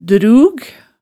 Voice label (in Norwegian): "drjug", hemsedalsmaalet